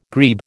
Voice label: "grebe", American